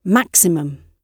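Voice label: "maximum", British English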